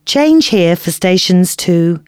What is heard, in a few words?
announcements female